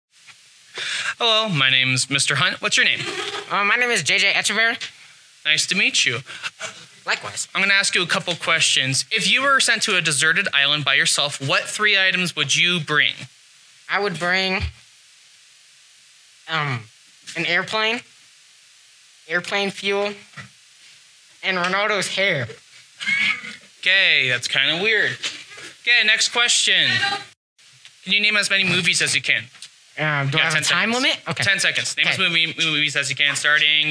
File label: Vox Pop; Soundtrap